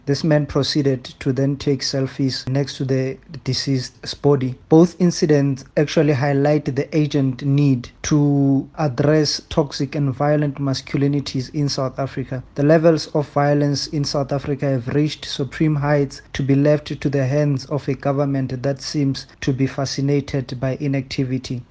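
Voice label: English; Violent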